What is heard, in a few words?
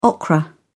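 "okra", British English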